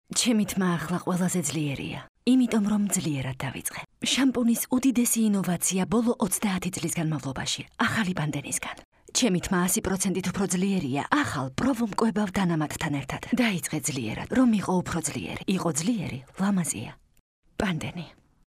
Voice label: Georgian Female